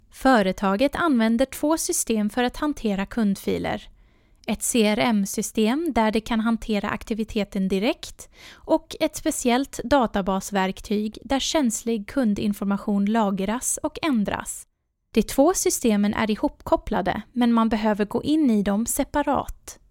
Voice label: Educational SWEDISH Kind Knowledgable